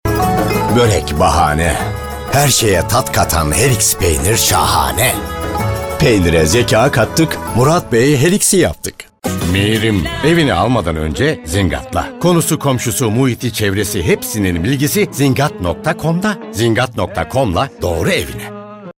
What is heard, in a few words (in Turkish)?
erkek